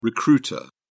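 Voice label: "recruiter", British English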